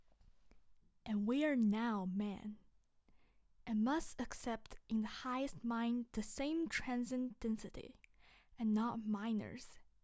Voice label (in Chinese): 英语